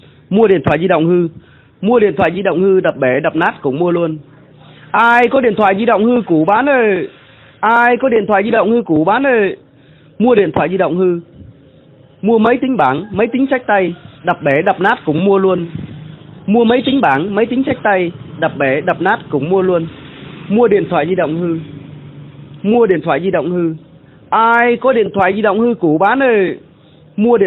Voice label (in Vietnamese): tieng rao mua dien thoai cu laptop hu; tieng con nguoi